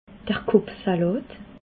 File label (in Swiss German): "kopfsalat", Alsace